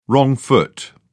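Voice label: "wrong foot", English, British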